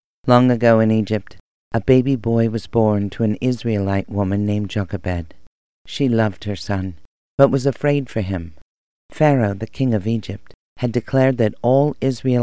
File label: Audio Bible Religious